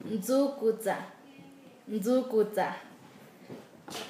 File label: Nasa Yuwe; oxidarse; paez